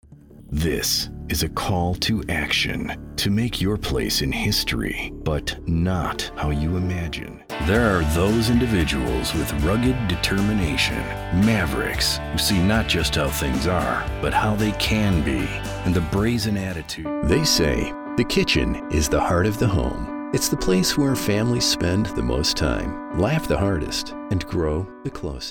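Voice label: deep and heavy voice-over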